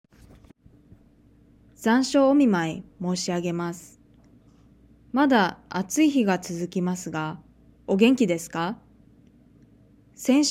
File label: Reading natural; OJAD